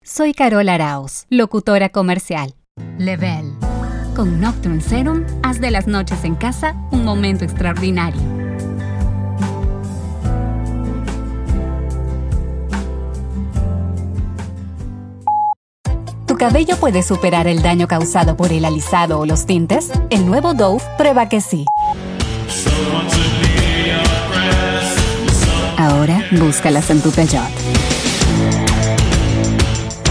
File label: Spanish Latin American commercial